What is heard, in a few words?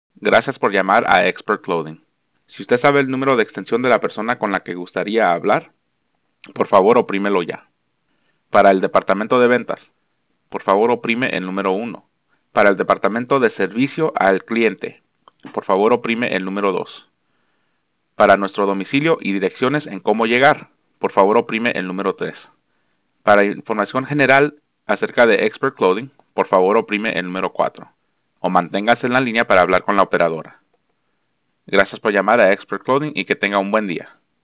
Spanish Sample; greetings